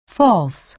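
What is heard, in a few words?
"false", American English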